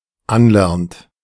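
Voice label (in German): "anlernt", German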